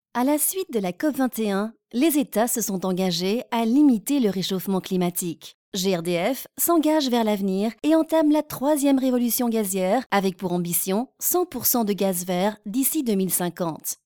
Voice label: Corporate French